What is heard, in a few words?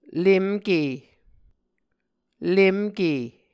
"lemke", Muscogee